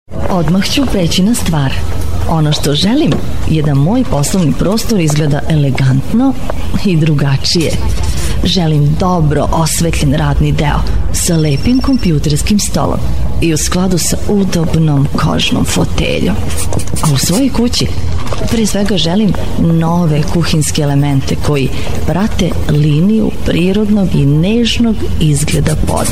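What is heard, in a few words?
Serbian female commercial; voice demos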